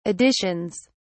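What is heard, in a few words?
"editions", British English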